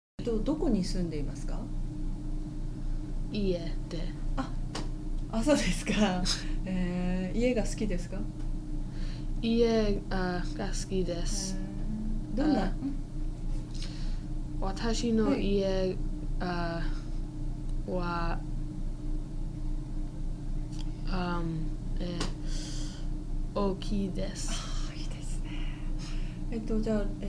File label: japanese speaking novice